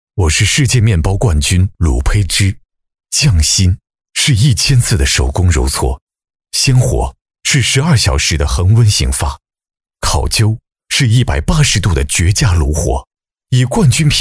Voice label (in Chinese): 男 宣传片 磁性厚重; 厚重有力; 大气浑厚